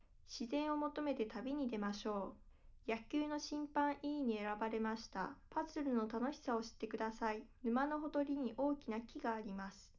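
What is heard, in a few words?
female live recording 5cm; SASSEC07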